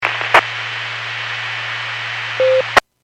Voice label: Skywarn 146.67; Stevens Point